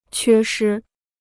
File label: "缺失", Chinese